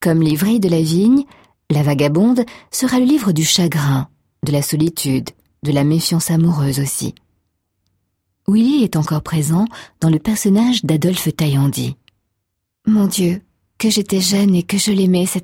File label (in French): F audio-book; FR; Français